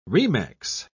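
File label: "remex", British English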